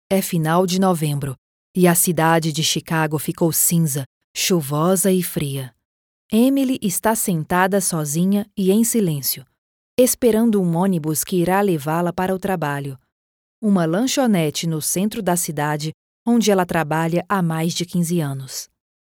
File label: portuguese brazilian movie trailers